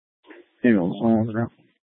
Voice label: Fire Dispatch

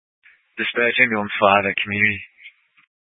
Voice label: Fire Dispatch

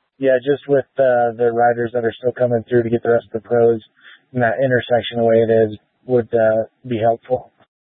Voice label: Incident Tac 3, scanner

